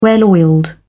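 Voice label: "well oiled", British English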